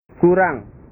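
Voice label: "kurang", Indonesian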